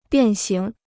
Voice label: "变形", Chinese

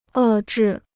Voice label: "遏制", Chinese

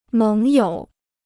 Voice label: "盟友", Chinese

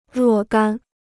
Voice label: "若干", Chinese

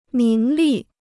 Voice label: "名利", Chinese

